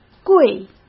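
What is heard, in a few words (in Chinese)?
"贵", Mandarin; chinese-flashcards; hsk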